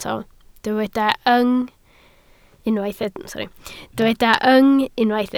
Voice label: "yng", Welsh